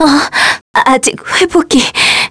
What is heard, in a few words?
Dead, kr; Lewsia; kingsraid